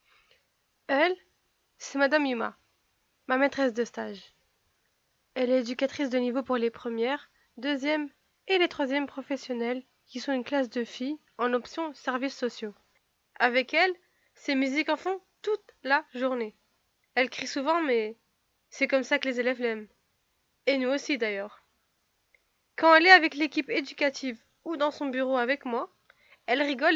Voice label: voix off oral

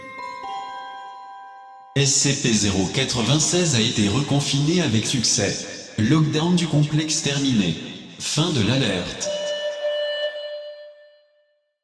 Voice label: annonce reconfinement; IAA

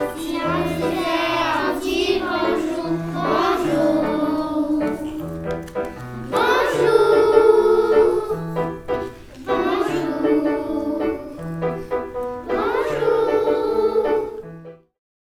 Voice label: bonjour presentation; genially page de garde

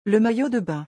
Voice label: "le maillot de bain"

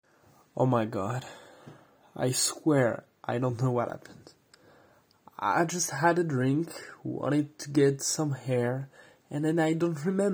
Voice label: Anglais audio

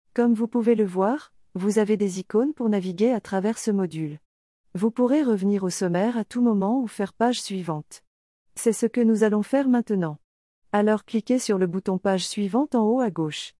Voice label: VOIX OFF

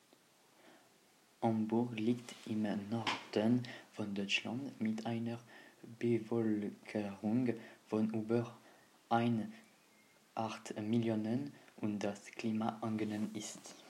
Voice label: Allemand

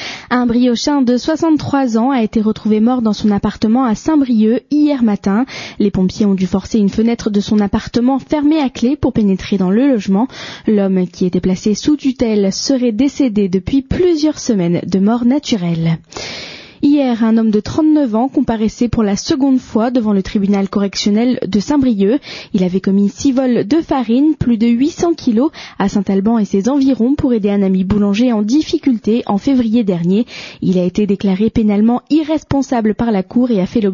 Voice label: Journal radio

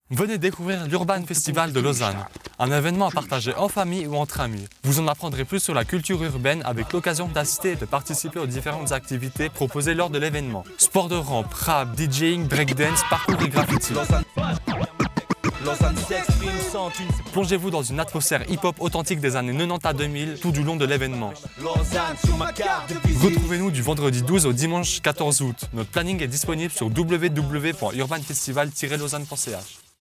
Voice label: Spot radio

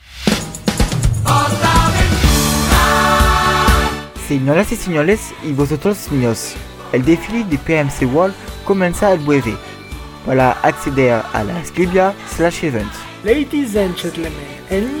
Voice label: annonce parade

